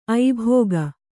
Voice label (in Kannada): "ಐಭೋಗ", Kannada